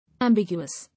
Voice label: "Ambiguous", English